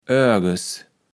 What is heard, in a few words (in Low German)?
"örges", kaevels platt